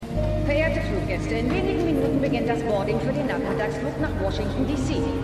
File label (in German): Flughafen Durchsage; Madam Secretary